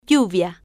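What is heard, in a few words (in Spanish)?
"lluvia", spanish, female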